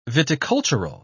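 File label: "viticultural", English, British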